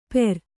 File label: "ಪೇರ್", kannada